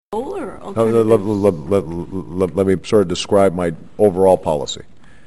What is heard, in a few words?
stutter; obama